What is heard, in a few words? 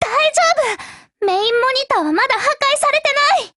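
break, voice, Japanese; GF Alarm; C93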